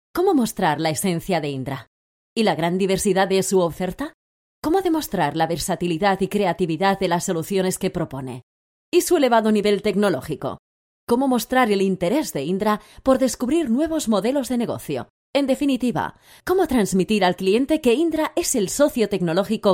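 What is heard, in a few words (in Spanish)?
corporativo España; Idioma: Español (o castellano); Género: Femenino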